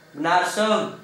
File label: "bnarsoŋ", Gta, Dialect: Hill; word, conversation